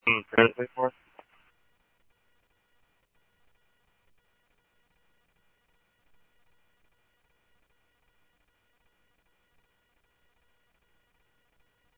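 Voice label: police department, scanner audio trunk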